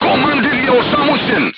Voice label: voice message commander contused, crew tanks serbian